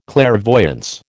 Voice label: "clairvoyance", American

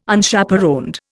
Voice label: "Unchaperoned", Indian

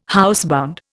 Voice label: "housebound", Indian

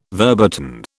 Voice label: "verboten", British

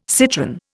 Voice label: "citron", Indian

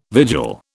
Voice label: "vigil", British

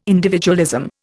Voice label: "individualism", Indian

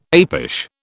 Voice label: "apish", British

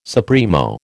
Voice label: "supremo", American

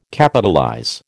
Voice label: "Capitalize", American

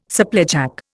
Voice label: "supplejack", Indian

